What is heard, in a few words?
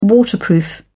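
"waterproof", British English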